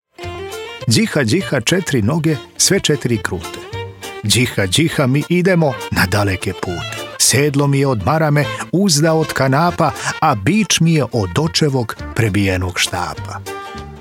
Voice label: serbian voice over for kids toys and educational apps